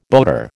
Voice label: "boater", American

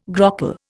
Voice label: "grockle", Indian

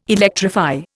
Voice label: "electrify", Indian

